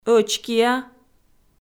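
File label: "ӧчкиа", Komi, female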